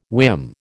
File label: "whim", American